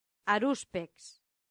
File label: "haruspex", latin; santillana